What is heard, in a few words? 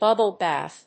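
"bubble bath", English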